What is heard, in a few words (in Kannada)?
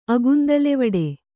"ಅಗುಂದಲೆವಡೆ", Kannada